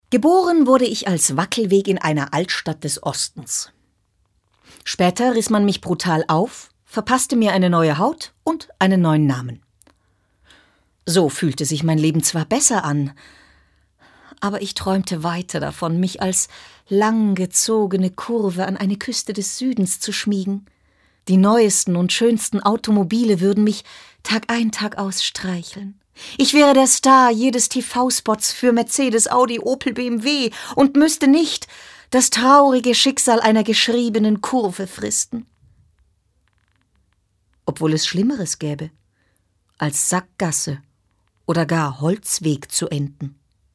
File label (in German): Schauspiel Dynamisch